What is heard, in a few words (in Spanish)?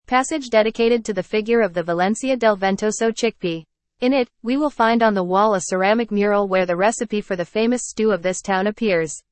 audio ingles; PASAJE DE LOS GARBANZOS